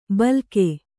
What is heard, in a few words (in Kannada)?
"ಭೀಲುಕ", Kannada